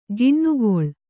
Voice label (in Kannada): "ಕೆನೆಗೊಳ್", Kannada